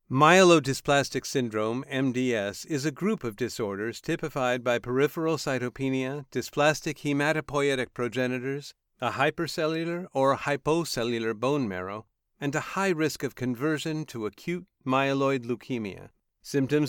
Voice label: Voice Over Actor Medical